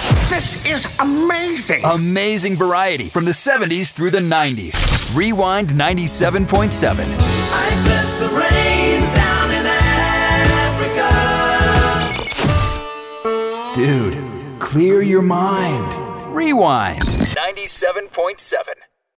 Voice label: radio adult hits; radio imaging

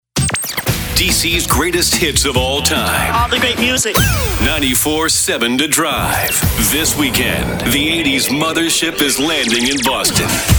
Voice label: radio men classic; Classic Hits; radio imaging

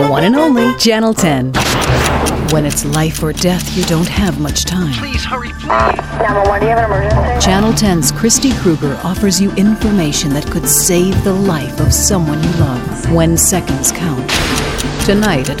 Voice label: tv aff news; tv affiliate

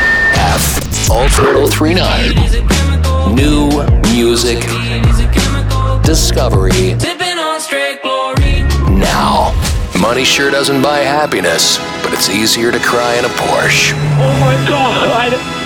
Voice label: radio men classic rock and rock; radio imaging

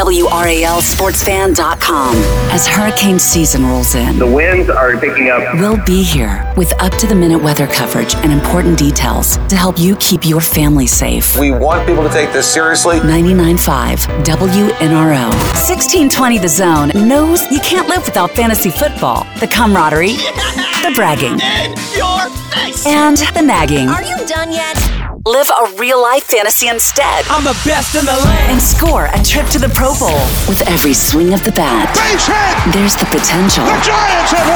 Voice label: radio women news sports, radio imaging

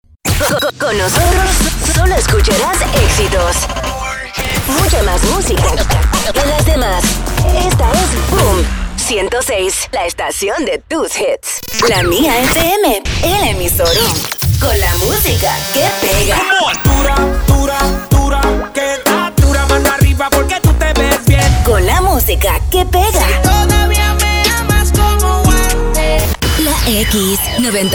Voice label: radio women spanish; radio imaging

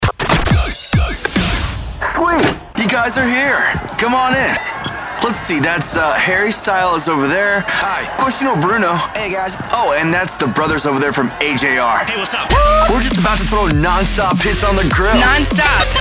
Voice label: radio imaging men chr

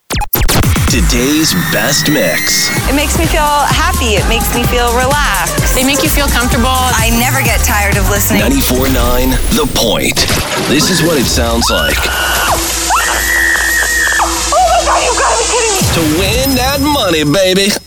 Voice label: radio imaging men hot ac

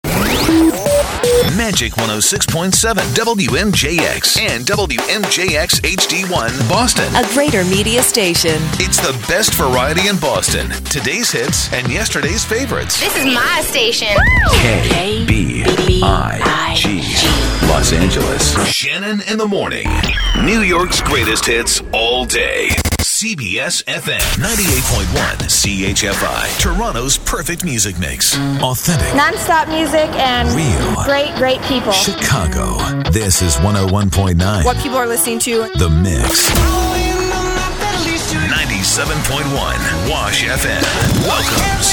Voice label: radio imaging male

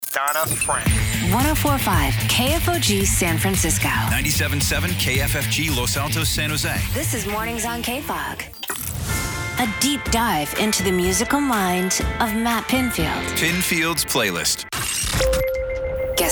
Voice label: radio female alternative; radio imaging

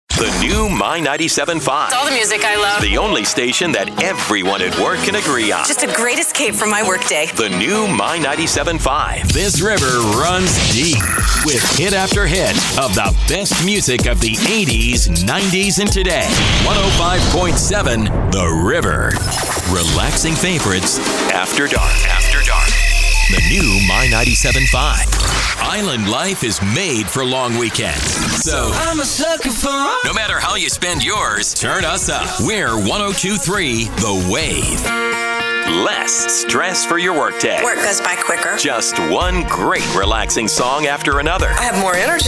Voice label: radio imaging men soft ac

